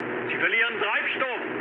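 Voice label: German tank damaged mood med, speech; War Thunder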